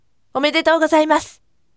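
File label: voice, firm; omedetougozaimasu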